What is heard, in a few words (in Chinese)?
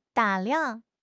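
"打量", Chinese; HSK6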